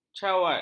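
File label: "cawat", Malay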